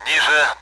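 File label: Speech Sound Russian Common AWACS; lower; DCS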